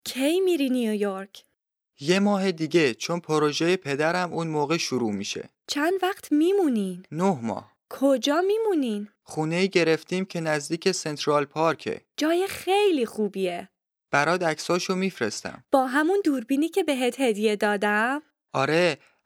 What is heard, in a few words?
Dialogue lesson Farsi